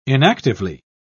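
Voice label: "inactively", British English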